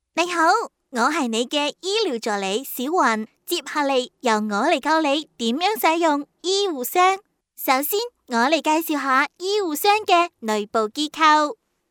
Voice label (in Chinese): 粤语童声, 女童